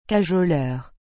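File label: "cajoleur", French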